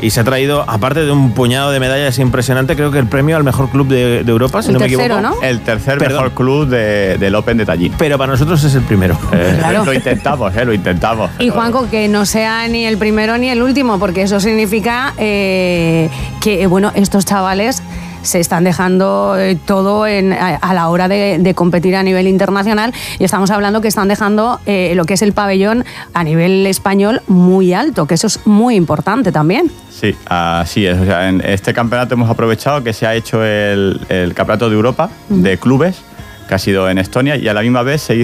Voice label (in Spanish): entrevista radio; club taekwondo finestrat; radio sirena COPE